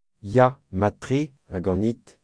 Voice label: fine, Breton; Ya, mat-tre. Ha ganit?

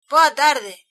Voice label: good afternoon, galician

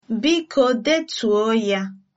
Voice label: write, igbo

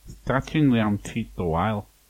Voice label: meet, manx

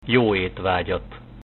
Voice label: bon appetit, Hungarian

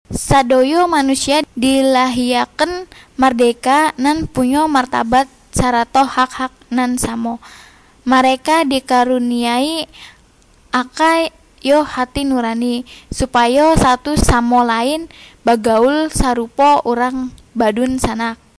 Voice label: Minangkabau

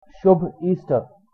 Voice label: easter, hindi

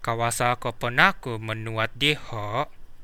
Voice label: write, kadazan

